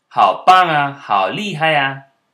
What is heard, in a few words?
chinese good job